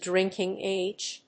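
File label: "drinking age", English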